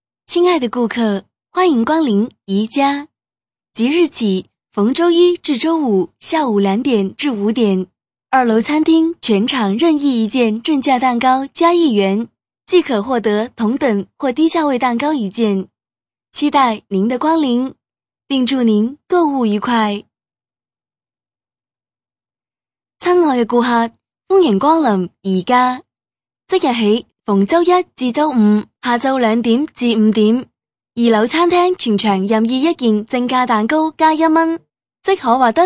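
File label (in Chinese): 女中英粤 家居; 宜家家居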